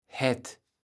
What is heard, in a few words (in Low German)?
"hätt", kaevels platt